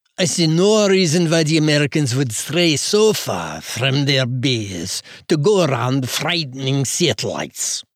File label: russian